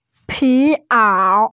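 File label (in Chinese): "pi2ao3", Mandarin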